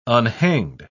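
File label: "unhanged", British English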